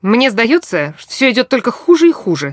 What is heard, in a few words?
idle, characters voice human woman; Stalker Anomaly